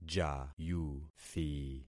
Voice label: "jayuthi", concat syllables; Affixing experiment